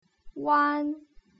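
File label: "uan" Mandarin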